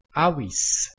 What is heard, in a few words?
"awis", Proto-Germanic